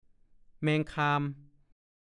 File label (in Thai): "แม่กระซิ่บ", ภาษาโคราช